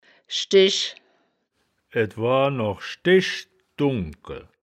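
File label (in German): "schdech", wittlicher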